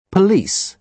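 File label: "police", British English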